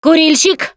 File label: warn smoker, russian, Survivor Voice Producer